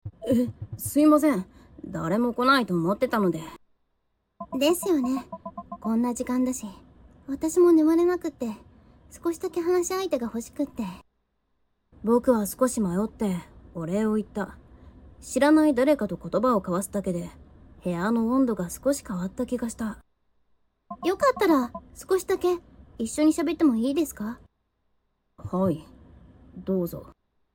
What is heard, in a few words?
sample voice, woman